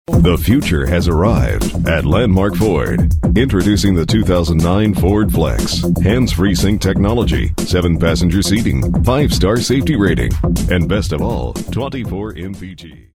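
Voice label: English US, male, commercial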